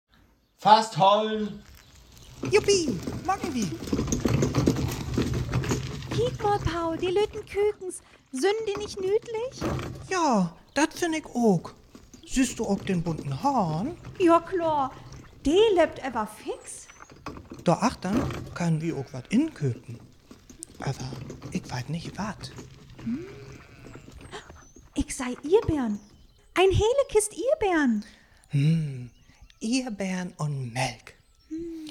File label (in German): Dialog, niederdeutsch